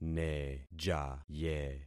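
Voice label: "nejaye", concatenated syllables; Affixing experiment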